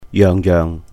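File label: "yeuhng yeuhng", Cantonese; each and every; 樣樣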